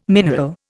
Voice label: "mineral", Indian

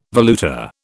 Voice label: "valuta", British

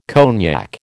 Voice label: "cognac", American

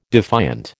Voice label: "defiant", American

